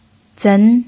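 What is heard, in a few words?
"zen2", Mandarin Chinese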